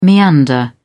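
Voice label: "meander", British English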